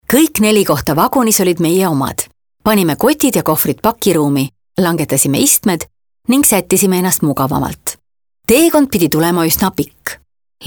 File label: EST female, Casual